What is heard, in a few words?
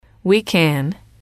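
we can, female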